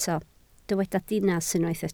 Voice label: "dinas", Welsh

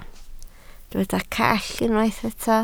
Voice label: "call", Welsh

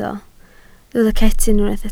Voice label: "cetyn", Welsh

